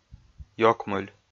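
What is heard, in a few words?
"ёкмыль", Komi, male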